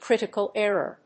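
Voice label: "critical error", English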